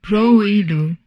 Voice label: humanoid portrait female; Stellaris; humanoids

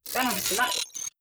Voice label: robot human female greetings; Stellaris; synthetic dawn

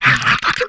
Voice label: humanoid male; Stellaris; humanoids

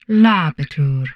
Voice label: humanoid portrait female; Stellaris; humanoids

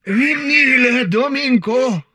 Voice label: humanoid portrait male; Stellaris; humanoids

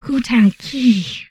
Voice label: humanoid female; Stellaris

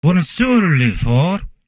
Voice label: humanoid male; Stellaris; humanoids

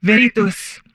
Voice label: humanoid female; Stellaris; humanoids

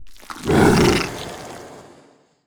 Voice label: portrait synthetic; Stellaris; machineage

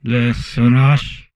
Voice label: humanoid portrait male; Stellaris; humanoids

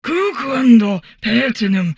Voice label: humanoid portrait female; Stellaris; humanoids

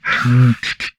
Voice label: humanoid portrait male; Stellaris; humanoids

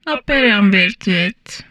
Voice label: humanoid female; Stellaris

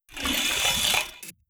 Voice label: robot plantoid greetings; Stellaris; synthetic dawn

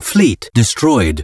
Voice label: advisor notification fleet destroyed; Stellaris; notifications

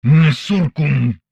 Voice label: humanoid male; Stellaris

